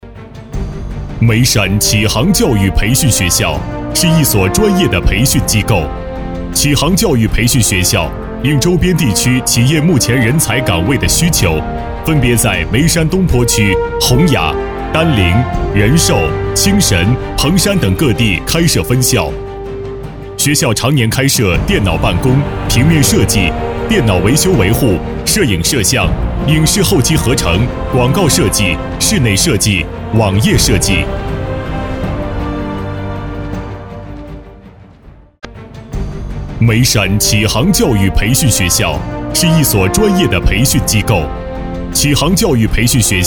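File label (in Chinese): 国语 男声 专题 大气浑厚磁性 沉稳; 眉山启航教育培训学校简介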